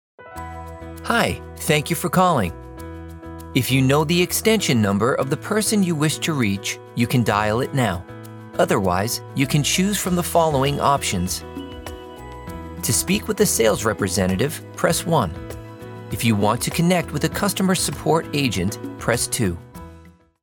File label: Phone